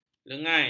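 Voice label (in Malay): "lengai", Malay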